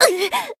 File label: Voice damaged jp